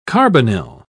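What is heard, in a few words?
"carbonyl", British English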